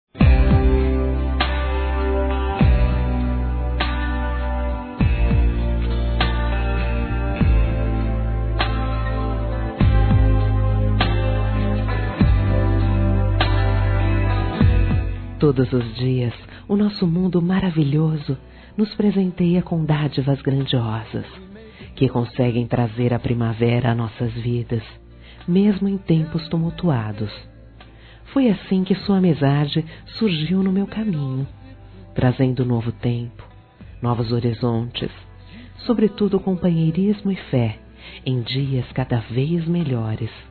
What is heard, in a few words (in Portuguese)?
ENCONTREI AMIGO, Telemensagens, Fem; Amizade